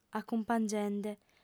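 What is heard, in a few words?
"acumpangiende", lingua sarda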